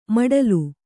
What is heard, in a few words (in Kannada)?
"ಮೊಟಲೆ", Kannada